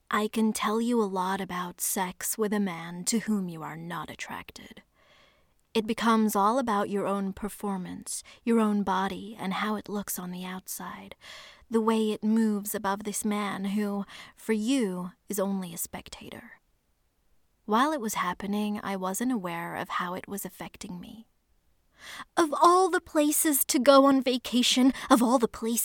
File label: US Reel